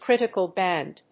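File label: "critical band", English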